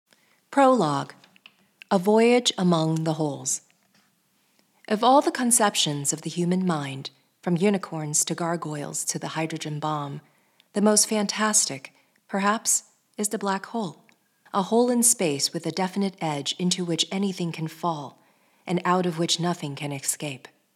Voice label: Educational